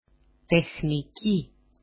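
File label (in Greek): "texniki", Greek; texnici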